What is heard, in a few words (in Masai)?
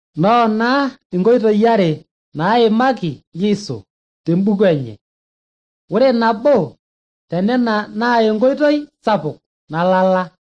maasai bsv nt drama; good news; bible